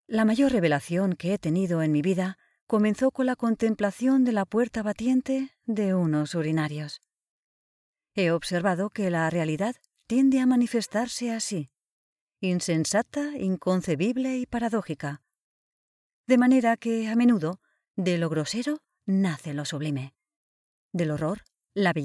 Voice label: Spanish Audiobooks adults; voice-over